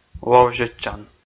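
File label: "ловзьӧдчан", Komi, male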